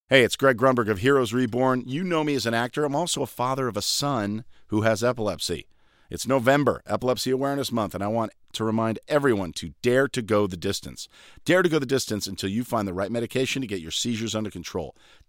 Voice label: spoken word mtp940; lewitt